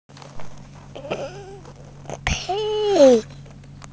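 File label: "pei2", Mandarin Chinese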